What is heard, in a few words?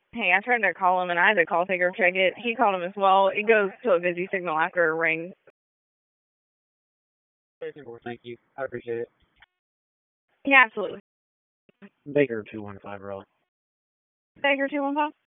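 Police Northeast District Disp, radio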